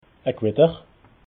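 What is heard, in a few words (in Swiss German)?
"guater", Alsatian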